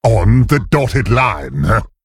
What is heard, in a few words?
Male Worgen Attack